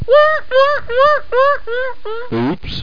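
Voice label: whoops, duck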